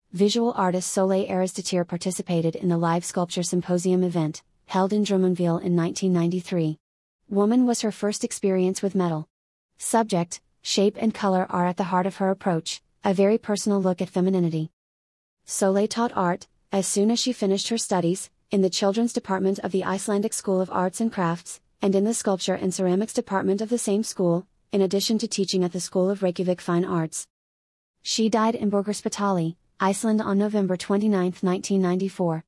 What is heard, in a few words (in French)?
anglais